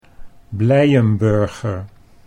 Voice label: "Blijenburger", Dutch; Blijenburgher; 'Happy Citizen'